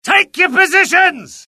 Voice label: alert, UK; mohaa; dialogue